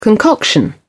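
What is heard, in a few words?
"concoction", British English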